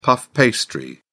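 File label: "puff pastry", British English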